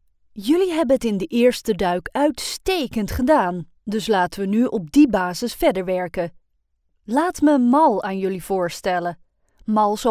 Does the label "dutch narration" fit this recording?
Yes